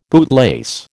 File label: "bootlace", American